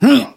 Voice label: voice male dodge